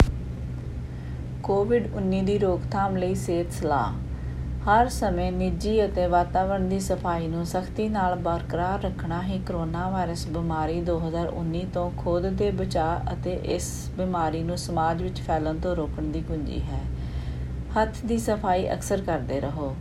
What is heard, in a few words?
Health Advice for prevention of COVID-19 Punjabi